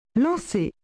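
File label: "lancer", French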